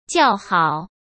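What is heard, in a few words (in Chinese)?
"叫好", Mandarin Chinese; hsk; chinese flashcards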